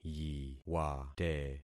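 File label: "yiwate", concatenated syllables; Affixing experiment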